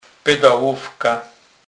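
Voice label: "pedalowka", Polish, Kurpie dialect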